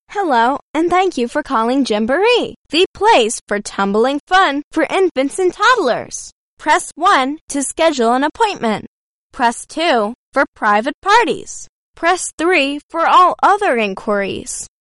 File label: Kids Telephone